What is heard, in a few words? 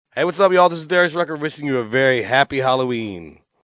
liner halloween; darius rucker